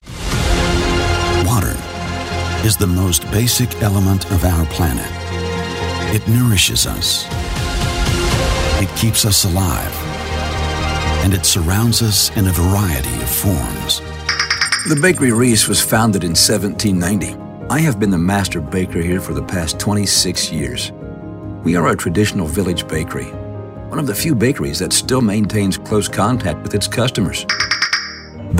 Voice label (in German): US Sprecher fuer Imagefilm; werbestimme